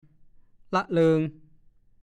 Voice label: "ล่ะเลิง", ภาษาโคราช; คลองหลง คลองที่เกิดจากลำน้ำที่ขาดเป็นช่วง ๆ

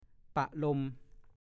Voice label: "ปะลม", ภาษาโคราช

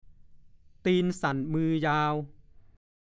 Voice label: "ตีนสั่นมือยาว", ภาษาโคราช; (สำนวน) ลักเล็กขโมยน้อย

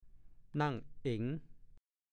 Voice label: "นั่งเอ๋ง", ภาษาโคราช; นั่นไง ใช่แล้ว

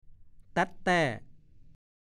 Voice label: "แต๊ดแต้", ภาษาโคราช; 1. นกต้อยตีวิด

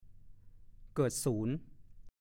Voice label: "เกิดสูน", ภาษาโคราช; เกิดสุริยุปราคา จันทรุปราคา

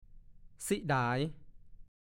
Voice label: "ซิด๋าย", ภาษาโคราช; 1. เสียดาย บางทีพูดว่าซิด๋ายเด่

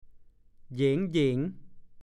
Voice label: "เหยง ๆ", ภาษาโคราช

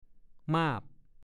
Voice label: "มาบ", ภาษาโคราช; เลื่อมระยับ

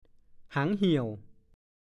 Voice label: "หางเหี่ยว", ภาษาโคราช; เหล็กสำหรับขุดดินเหนียว

